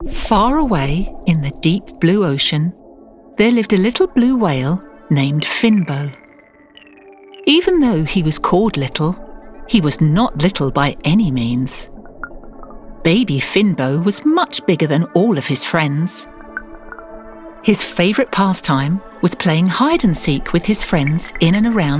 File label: Childrens Story